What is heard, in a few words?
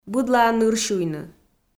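"быдлаӧ ныр сюйны", Komi, female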